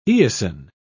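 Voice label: "eosin", English, British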